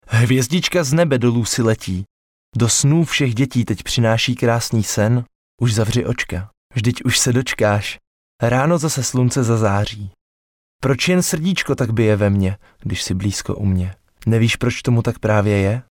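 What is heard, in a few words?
Male Czech drama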